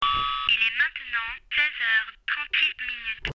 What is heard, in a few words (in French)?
voix femme